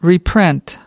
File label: "reprint", us